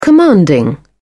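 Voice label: "commanding", English, British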